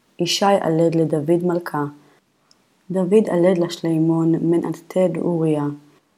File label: Matthew Reading Practice, Aramaic